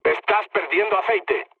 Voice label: damaged oil, mood low, speech; War Thunder

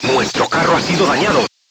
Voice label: voice message player tank hit, crew tanks spanish

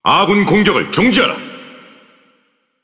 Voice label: Ko Speech Friendly Fire Hit Alert; World Of Warships

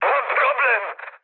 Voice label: aircraft damaged mood high, speech pol

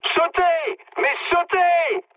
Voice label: bailout mood med, speech fr; War Thunder

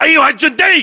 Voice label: rank private, localized english battlechatter

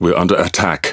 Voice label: Speech Pilots Fighter Under Attack, EN GB; World Of Warships

